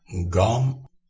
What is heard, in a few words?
"gam", Dari